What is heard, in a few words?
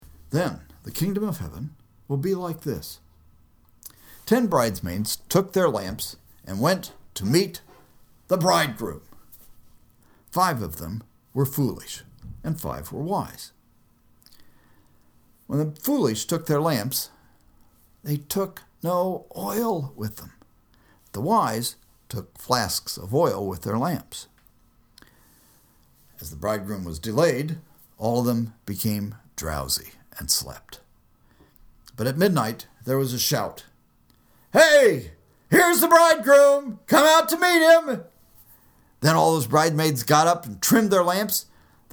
storytelling